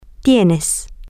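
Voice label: you have informal, Spanish, female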